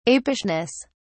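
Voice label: "apishness", British English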